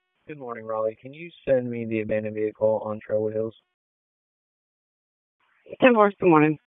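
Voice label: Police Southwest District Dispatch; Raleigh; p25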